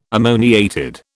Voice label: "ammoniated", British